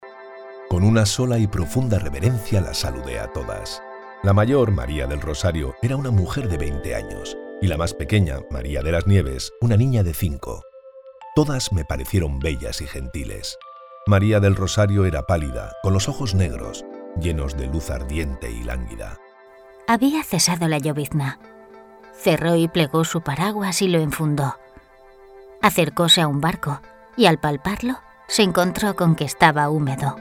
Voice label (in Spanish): audiolibros locutores spanish voiceover; locutor audiolibros, audiobook voice over